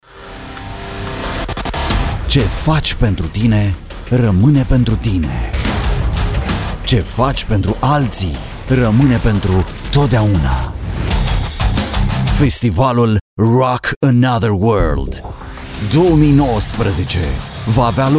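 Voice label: Romanian, Commercial; GoLocalise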